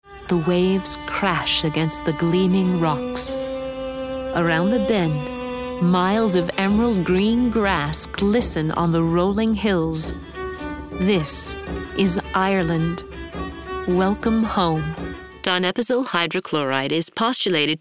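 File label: Narration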